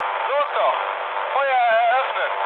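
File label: German attack position mood low, speech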